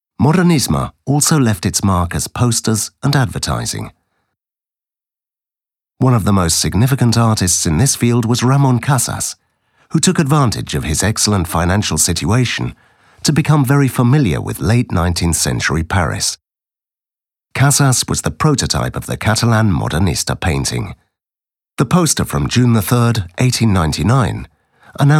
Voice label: audioguia english